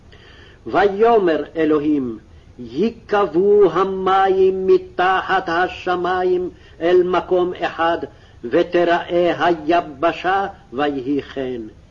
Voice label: Genesis Reading Practice, Aramaic